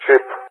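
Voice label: "ship", English, GREECE; Target; DCS; Allied Flight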